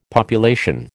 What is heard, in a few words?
"population", American